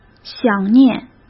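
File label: "想念", Mandarin Chinese; hsk; chinese-flashcards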